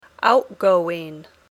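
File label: "outgoing", English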